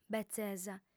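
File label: "betzesa", female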